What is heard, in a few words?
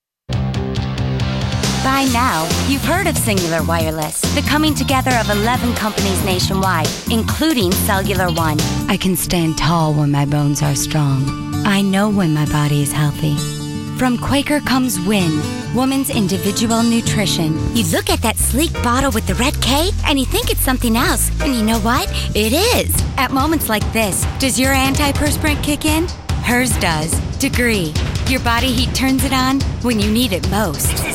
Commercial, Female voices